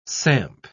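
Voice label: "samp", British English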